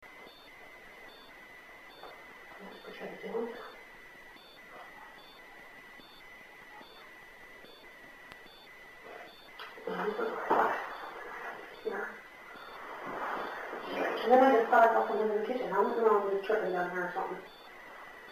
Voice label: voice over ours